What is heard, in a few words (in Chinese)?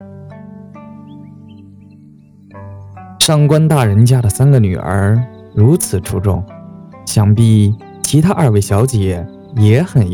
男播